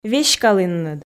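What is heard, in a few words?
"веськалінныд", Komi, female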